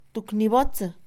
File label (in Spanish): Mixe, Mujer; moldear; Acciones; INALI